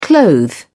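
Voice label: "clothe", British English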